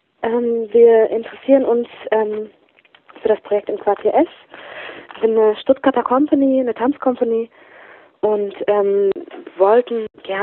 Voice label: Voicemail